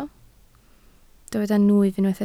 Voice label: "nwyf", Welsh